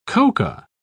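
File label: "coca", British English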